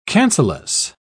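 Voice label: "cancellous", British English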